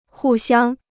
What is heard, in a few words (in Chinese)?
"互相", Chinese